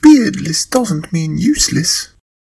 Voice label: dwarf woman